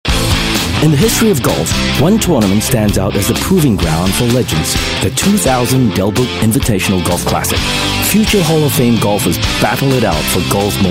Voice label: Asian English Golf Promo, English Singaporean Male